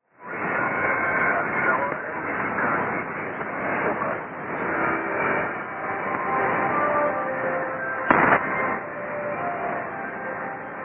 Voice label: 3325kHz; NBC-Buka